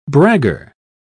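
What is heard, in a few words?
"bragger", English, British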